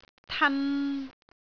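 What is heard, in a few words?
"tan3", Cantonese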